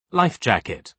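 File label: "life jacket", British English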